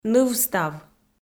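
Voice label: "нывстав", Komi, female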